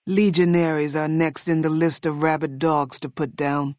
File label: female old greeting; Fnv